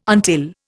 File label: "until", Indian